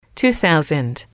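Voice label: two thousand, English, female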